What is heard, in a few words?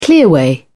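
"clearway", English, uk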